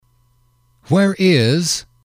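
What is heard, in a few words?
where is, male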